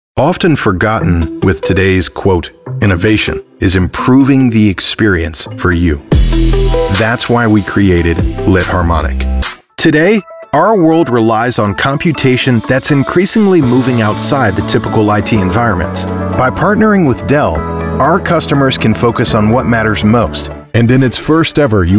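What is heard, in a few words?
English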